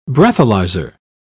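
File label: "breathalyzer", British English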